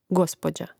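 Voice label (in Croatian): "gospođa", Hrvatski; mreznik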